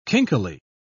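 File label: "kinkily", British English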